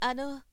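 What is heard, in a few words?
"ano", Japanese, woman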